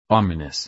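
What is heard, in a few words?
"ominous", English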